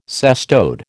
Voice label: "cestode", American